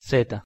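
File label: "z" Spanish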